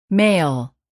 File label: male